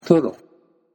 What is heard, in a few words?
"toro", Japanese